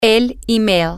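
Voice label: the email, Spanish, female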